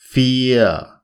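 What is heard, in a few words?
"vier", German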